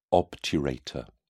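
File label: "obturator", British English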